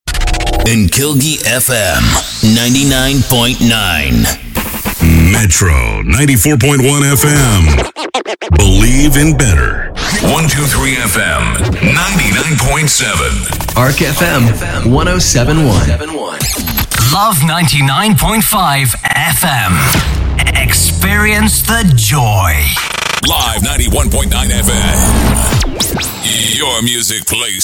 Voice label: STATION IDS